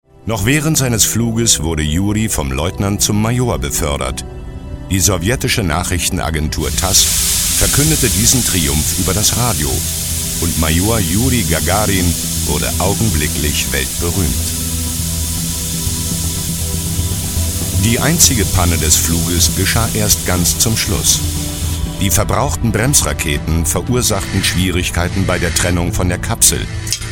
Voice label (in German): Doku